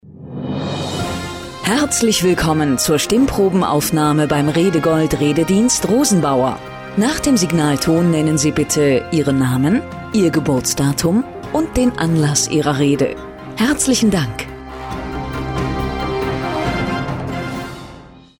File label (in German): Ansage Stimmprobe